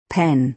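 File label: "pen", American English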